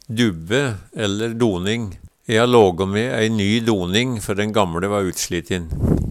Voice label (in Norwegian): "dubbe", Numedalsmål; Substantiv hokjønn